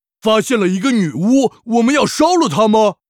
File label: peasant pissed, zh